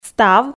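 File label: "став", Komi, female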